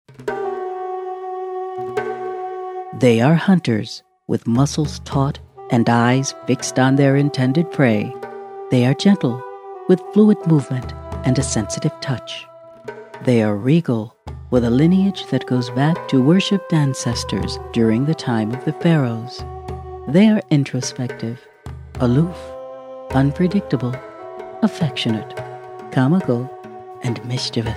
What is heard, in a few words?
narration